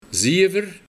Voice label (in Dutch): "Ziejever", de Buiting; Zever